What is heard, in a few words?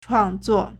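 "chuàngzuò", Chinese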